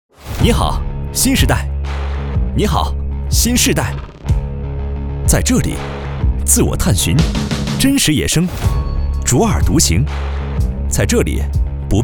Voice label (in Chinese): 男 广告 年轻 tvc